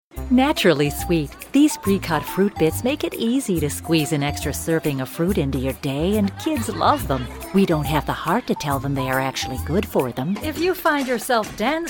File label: English US